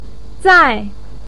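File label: "zai4", Mandarin Chinese pinyin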